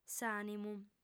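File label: "s'ànimu", Sardinian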